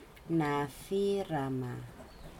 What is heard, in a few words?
"basket", Aneityum